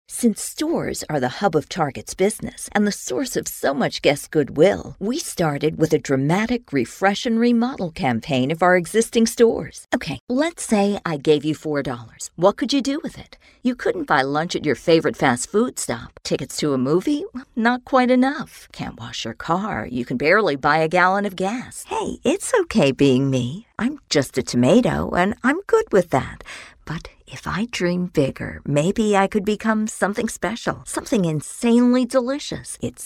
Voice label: Explainers